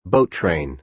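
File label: "boat train", American English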